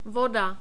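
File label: "voda", czech, male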